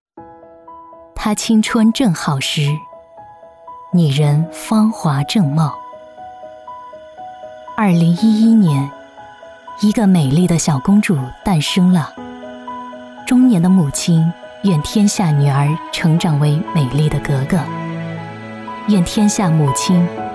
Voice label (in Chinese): 女 宣传片 优雅; 颐美格